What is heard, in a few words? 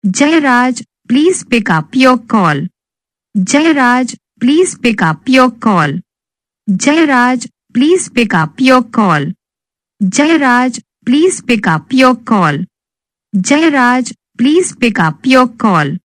plz pickup your call saying